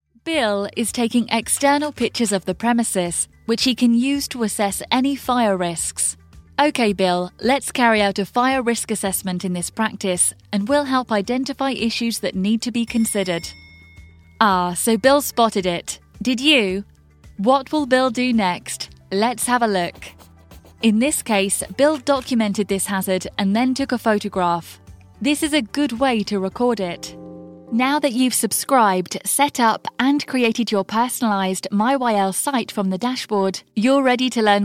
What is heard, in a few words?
E-Learning, british female voiceover